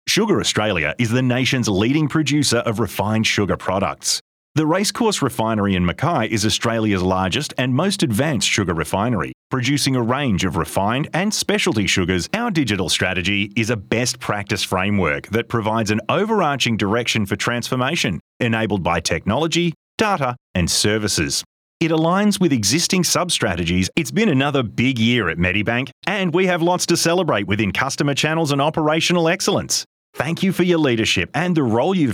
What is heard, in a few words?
Corporate